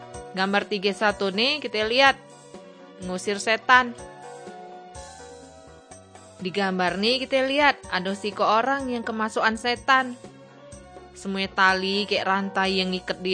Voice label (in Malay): kabar baik good news malay bangka